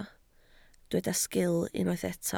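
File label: "sgil" Welsh, spoken word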